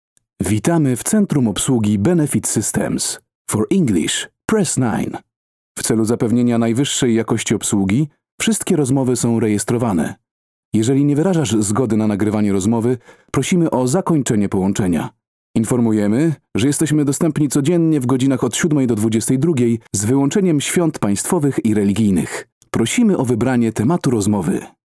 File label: Short IVR